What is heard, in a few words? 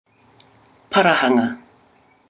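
"parahanga", Māori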